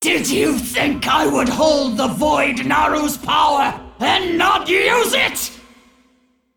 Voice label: Female Dragon Undead; Onyxia

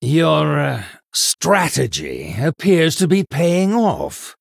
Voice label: Male Human Ahead

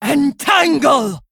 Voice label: Female Furbolg Attack

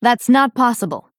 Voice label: Female Dryad ERROR

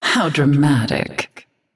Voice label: Female Naga Threaten; Azshara; Diamond

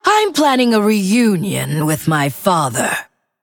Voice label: Female Undead

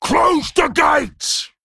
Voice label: Male Worgen

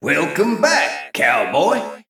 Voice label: Male Mech General

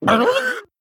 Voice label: Male Kobold Death

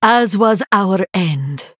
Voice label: Female Draenei Greetings; Mirror

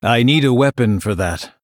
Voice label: Male Blood Elf Error Need Weapon

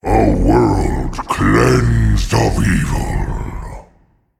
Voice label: Male Titan Trigger

